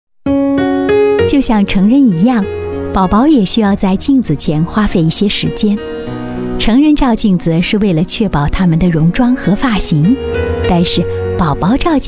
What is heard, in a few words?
chinese voice over female elearning